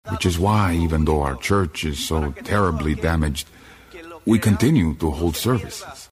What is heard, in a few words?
spanish dialect